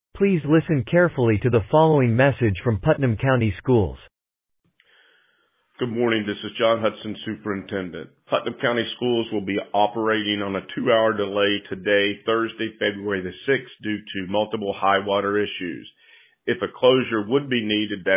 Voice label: Voicemail